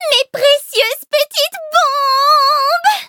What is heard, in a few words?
levelup, fr; Cookie Run